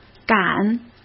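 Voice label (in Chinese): "敢" Mandarin Chinese; hsk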